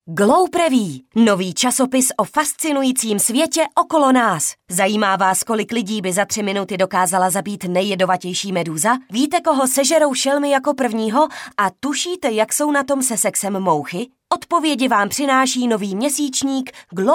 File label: female czech voice over sales